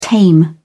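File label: "tame", British English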